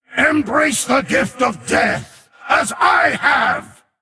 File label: Male Orc Play